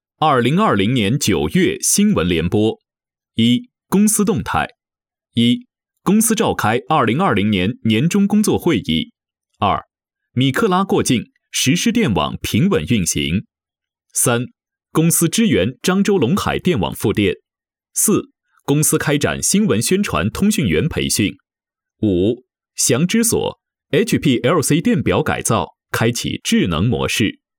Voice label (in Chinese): 新闻; 新闻联播